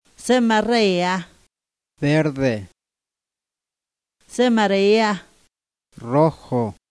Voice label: triqui; mexico